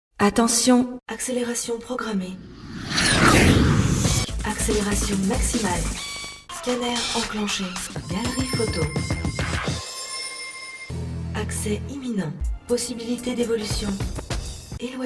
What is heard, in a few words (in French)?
extraits voix vaisseau spatial